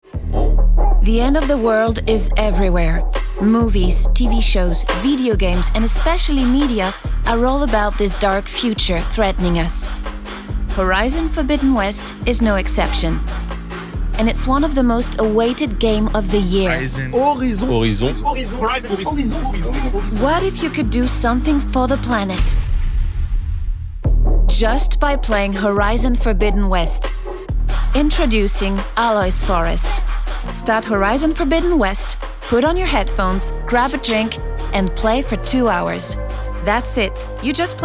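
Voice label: pub English; Playstation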